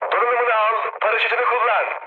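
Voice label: Turkish bailout, mood med, speech; War Thunder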